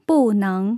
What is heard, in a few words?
"bu4 neng2", tone changes